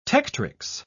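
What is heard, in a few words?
"tectrix", British English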